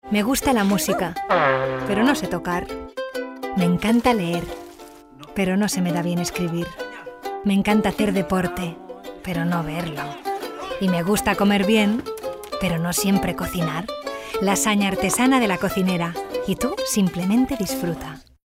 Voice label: female spanish food comercial